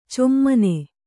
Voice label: "ಛಮ್ಮನೆ", Kannada